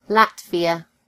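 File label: "latvia", British English; gcompris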